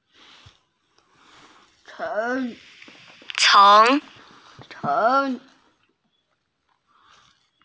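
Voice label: "ceng2", Mandarin Chinese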